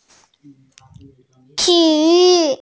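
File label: "words", hearing impaired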